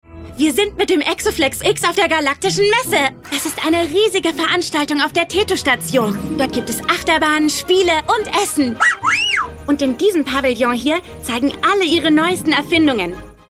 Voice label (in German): Synchron; Miles